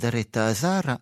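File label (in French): sarra daretu à a, Corsican, Rocca (sud); Corse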